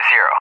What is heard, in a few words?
"0", ENG, AWACS; Digits; DCS